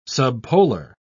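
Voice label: "subpolar", British English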